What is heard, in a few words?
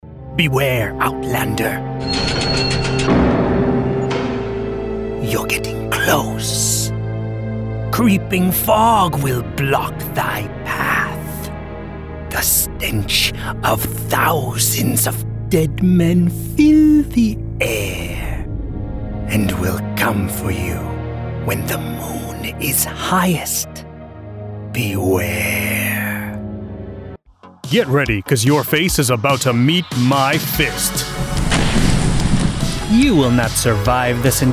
video game cartoon anime character voice over